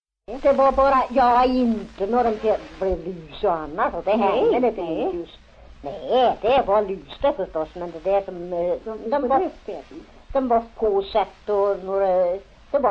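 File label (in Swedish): Järvsö Hälsingland, svenska sagor dialekter; Folkminnen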